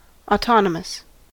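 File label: "autonomous", American English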